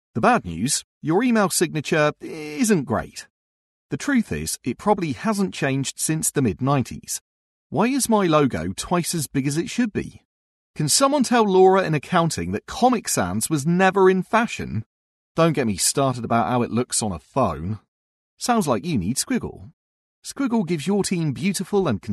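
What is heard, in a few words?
English UK Male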